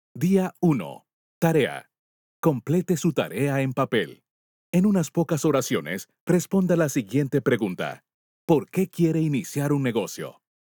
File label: Spanish Homework